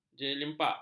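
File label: "jelimpat", Malay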